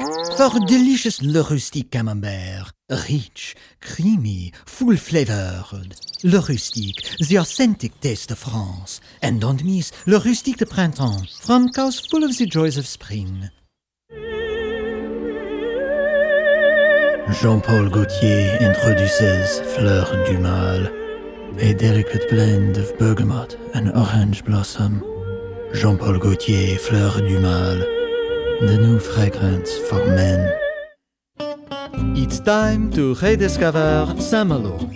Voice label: French VO; Internet Session, New York